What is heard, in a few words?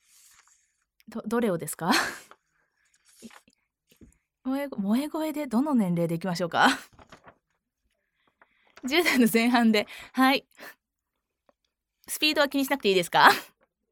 narration